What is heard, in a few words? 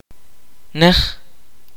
"far", kaqchikel